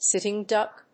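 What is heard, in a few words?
"sitting duck", English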